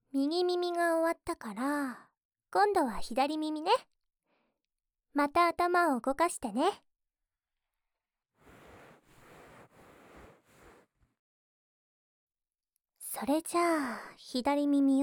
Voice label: 耳かき(左耳), ASMR

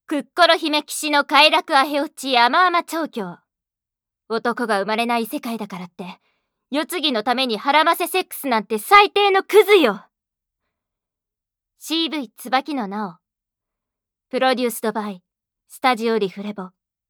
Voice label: タイトルコール, ASMR

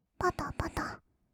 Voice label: ぱたぱた, kawaii voice; ASMR; 色々なワンショット

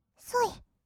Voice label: "そい", kawaii voice; ASMR; 色々なワンショット; 1200 kawaii voice!!

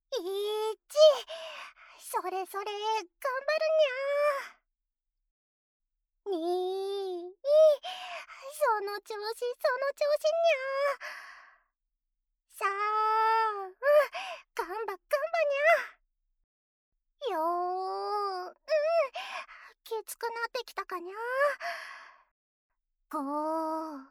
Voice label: 筋トレ用 1～10 カウント (応援あり)

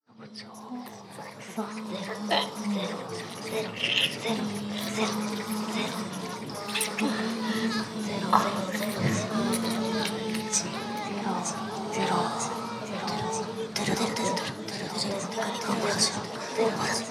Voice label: ノーマル 耳舐め しこしこ フェラ Ｈなセリフ カウントダウン, ASMR; ダウナー風俗オナサポ味

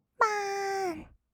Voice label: "ばーん", kawaii voice; ASMR; 色々なワンショット

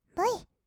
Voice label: "ぶい", kawaii voice; ASMR; 色々なワンショット

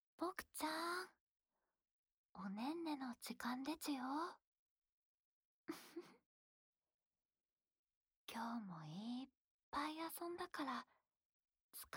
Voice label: 安眠用 添い寝 ボイス ループ（左耳

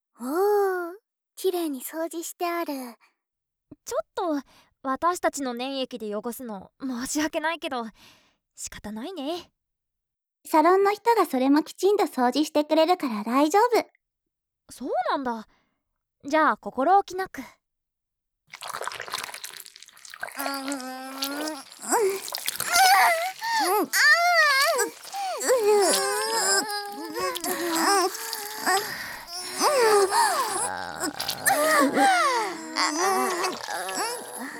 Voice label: 産卵, ASMR

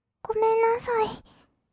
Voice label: 「ごめんなさい」, kawaii voice; 挨拶

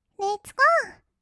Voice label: レッツゴー, kawaii voice; 音ゲー

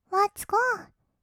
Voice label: "ワッツゴー", kawaii voice; 音ゲー

